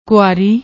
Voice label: "kuaari", Punjabi; ਕੁਆਰੀ